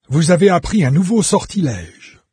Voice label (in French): new spell, VOICES fr NARRATOR; Fate